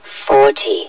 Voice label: "fourty", hev suit; Half Life Alyx

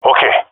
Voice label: affirmation, jungle male; vo; agents; Source Model Packs; Counter Strike Model Pack; CS2; jungle male epic

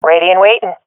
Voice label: reporting in, swat fem; vo; agents; Source Model Packs; Counter Strike Model Pack; CS2

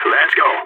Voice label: radio lets go, balkan

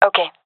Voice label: affirmation, gendarmerie fem; vo; agents

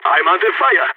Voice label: pinned down, balkan; vo

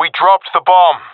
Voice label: sees dropped bomb, professional epic; vo; Source Model Packs; Counter Strike Model Pack; CS2

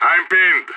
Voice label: pinned down, vo; idf

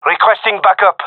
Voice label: request backup, gendarmerie male; vo

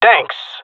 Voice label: thankful, jungle male; player vo; Counter Strike Model Pack; CS:GO

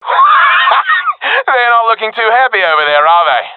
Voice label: round winning, professional epic; Counter Strike Model Pack; CS:GO

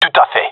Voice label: agree, gendarmerie male; vo

